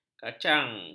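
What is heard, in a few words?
"kacang", Malay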